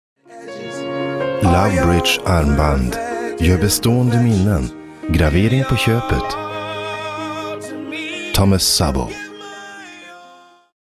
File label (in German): Werbung; Thomas Sabo